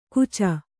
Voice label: "ಕೂಚ", Kannada